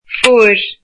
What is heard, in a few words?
cold, irish